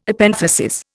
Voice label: "Epenthesis", Indian